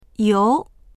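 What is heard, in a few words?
"you2", Chinese; 由; …によると、…から；理由、経由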